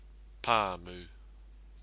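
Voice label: "pamu", Maori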